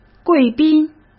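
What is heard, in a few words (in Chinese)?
"贵宾", Mandarin Chinese; hsk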